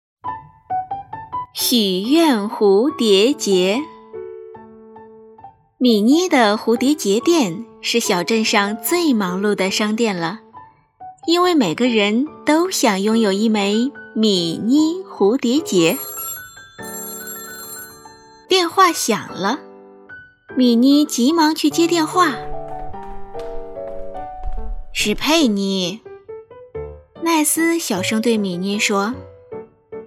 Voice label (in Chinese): 儿童读物亲切多角色